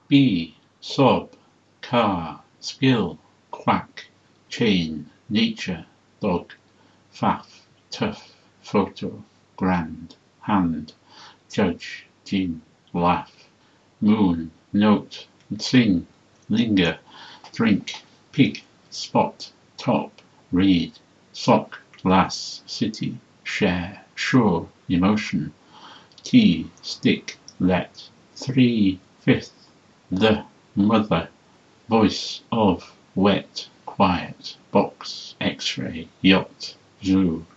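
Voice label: consonants, British English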